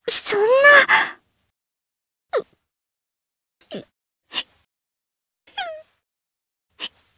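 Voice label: Vox Sad Japanese; Hanus; kingsraid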